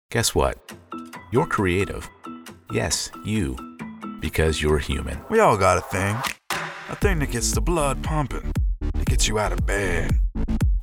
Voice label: Commercial